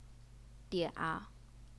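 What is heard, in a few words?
"dia", third tone, Chinese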